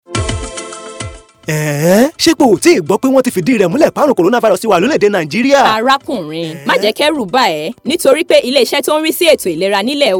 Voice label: WhatsApp Yoruba Audio Jingles